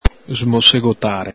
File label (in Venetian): "smosegotare", Veneto